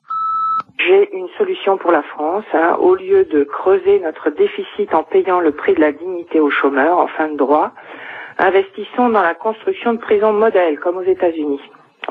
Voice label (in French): Assurance chomage repondeur